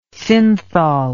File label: "synthol", English word